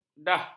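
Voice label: "dah", Malay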